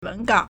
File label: "wen2gao3", Chinese